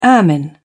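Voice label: "ermine", British English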